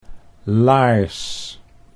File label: "laars", Dutch